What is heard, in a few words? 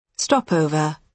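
"stopover", British English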